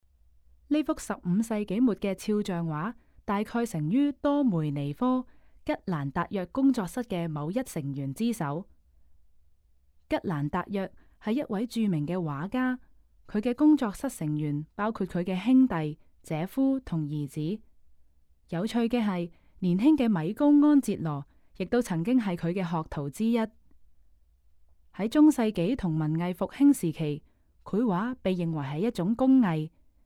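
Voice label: Cantonese, audio guide; 女孩肖像; 多梅尼科．吉蘭達約工作室（1449–1494年）; 可能作於約1490年; 木板蛋彩畫，44.1 x 29.2厘米; 英國國家美術館; 從波提切利到梵高：英國國家美術館珍藏展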